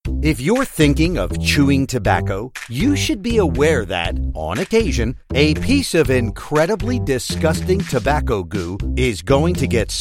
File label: Voice Over Commercials